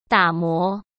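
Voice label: "打磨", Mandarin Chinese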